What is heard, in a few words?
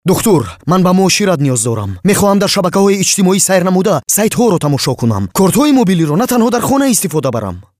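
Tajikistan male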